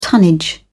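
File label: "tonnage", British English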